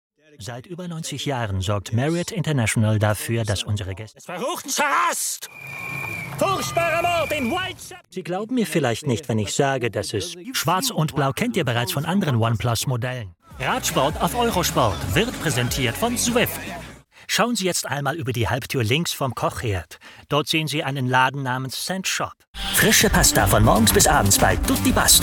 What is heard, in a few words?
german male voices